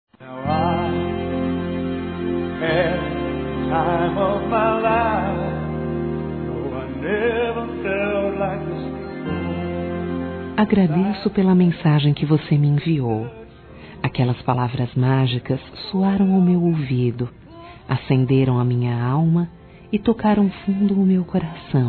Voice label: agradecimento f, Dia Dos namorados, fem; Telemensagens 2017

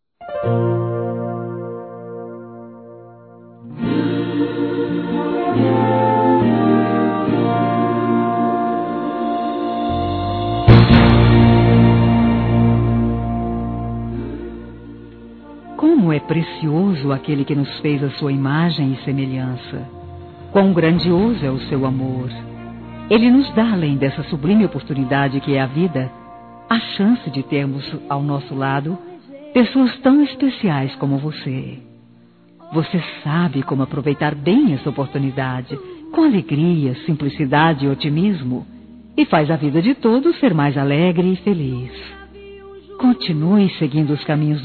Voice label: Telemensagens, Aniversários, Fem; ANIV. EVANGÉLICO; Telemensagens 2017

